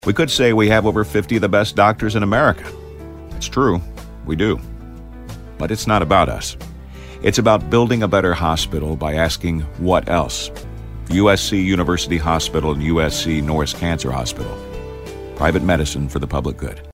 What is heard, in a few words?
anti announcer thoughtful